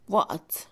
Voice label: Mixe, Mujer; claro; Percepción; INALI

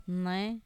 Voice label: astuto, diablo, Mazateco; INALI

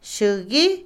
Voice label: heno, paja, Otomí, mujer; INALI

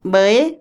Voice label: "ofrenda", Otomí, mujer; Religión; Vocabulary; INALI

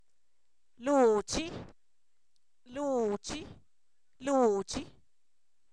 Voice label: lengua, Zapoteco, san miguel albarradas; cuerpo; INALI

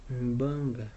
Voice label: antepasado, Pima, Yécora, Sonora; INALI

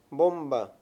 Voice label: "bomba", Mayo; Mundo moderno

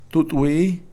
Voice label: voz, Kakchikel

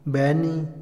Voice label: acostarse, Otomí, hombre; INALI

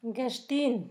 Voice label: pan, Zapoteco; Alimento; San Pedro Mártir; INALI

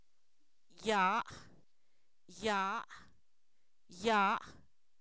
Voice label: arriba, Zapoteco, san miguel albarradas; adverbios

